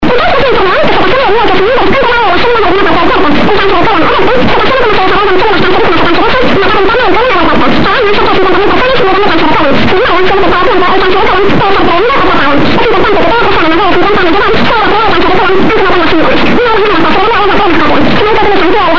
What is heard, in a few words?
Spanish Phone Colon